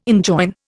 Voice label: "enjoin", Indian